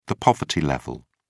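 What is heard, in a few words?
"poverty level", British English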